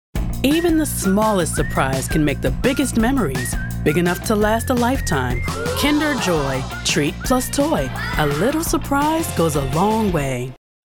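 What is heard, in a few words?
commercial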